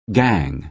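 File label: "gang", American English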